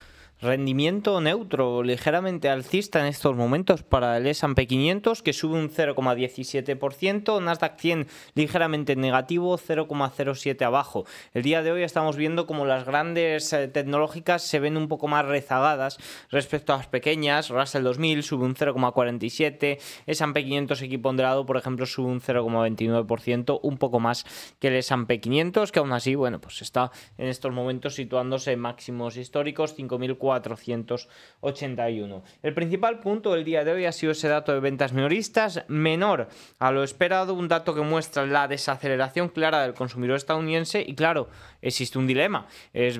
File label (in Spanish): radio; noticias bolsa